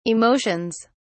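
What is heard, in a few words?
"emotions", British English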